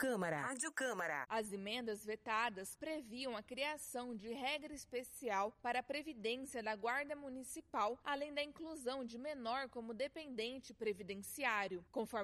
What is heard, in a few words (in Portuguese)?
spot veto executivo previdencia radio camara